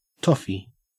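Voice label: "toffy", Australian English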